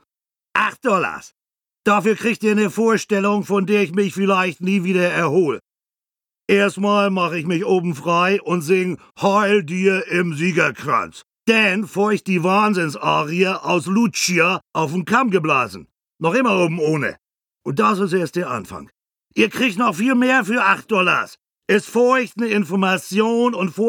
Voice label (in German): Hoerspiel Standesamt; Hamburg nach Maß; E-Hoerspiel